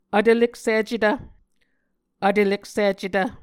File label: "vtvleksicetv", muscogee